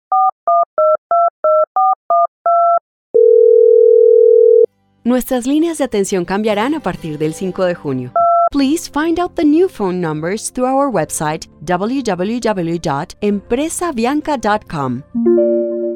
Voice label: IVR